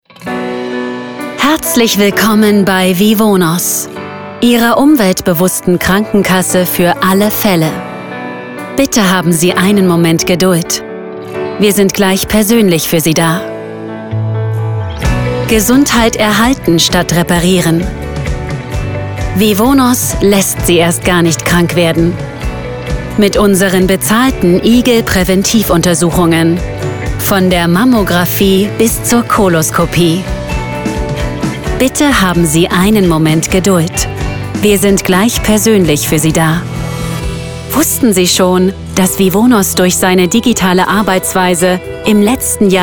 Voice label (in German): Warteschleife